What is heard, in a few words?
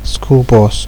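"school bus", Nigerian Pidgin, male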